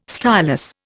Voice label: "stylus", Indian

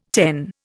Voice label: "ten", Indian

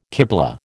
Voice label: "kiblah", American

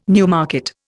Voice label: "Newmarket", Indian

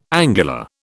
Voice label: "angular", British

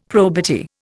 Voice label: "probity", Indian; Dictionary

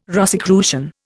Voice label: "Rosicrucian", Indian

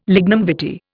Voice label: "lignum vitae", Indian

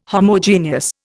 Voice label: "Homogeneous", Indian

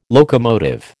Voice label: "locomotive", American

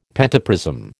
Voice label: "pentaprism", American

